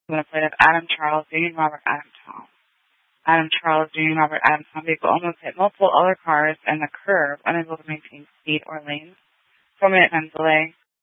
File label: Sheriffs Office, scanner